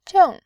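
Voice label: "jing", Mandarin, fourth tone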